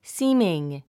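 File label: "seeming", English word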